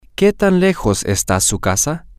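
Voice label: how far is his house, Spanish, male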